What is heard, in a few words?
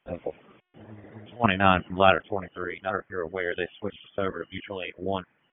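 FIRE Operations, p25; FIRE OPS 4; wake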